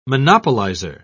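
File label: "monopolizer", British English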